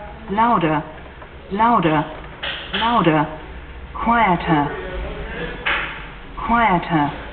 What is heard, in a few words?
english, British English; CD-based navigation; directions spoken with a Briti; MINIs (again) speak British English; new MINI